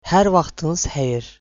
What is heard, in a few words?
good afternoon, az; Azerbaijani; Hər vaxtınız xeyir; Good afternoon (Afternoon greeting)